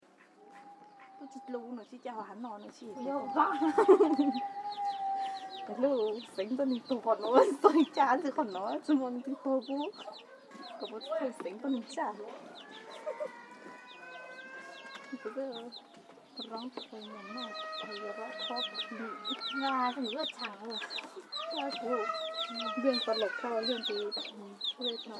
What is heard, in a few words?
Hmong talk